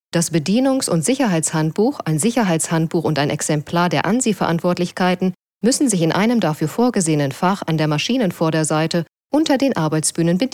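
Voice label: German, training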